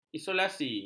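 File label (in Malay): "isolasi", Malay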